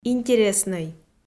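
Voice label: "интереснӧй", Komi-Zyrian, female; audio dict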